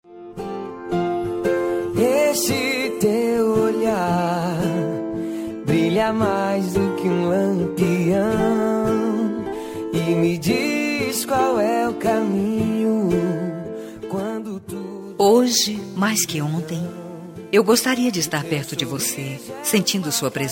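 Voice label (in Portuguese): dia dos pais female distante, voz feminina; linda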